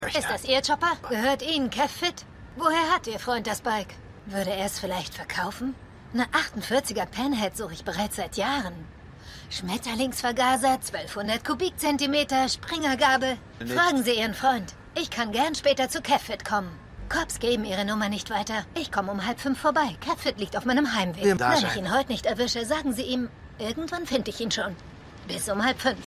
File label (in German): Motorradpolizistin, Stimmproben; Shameless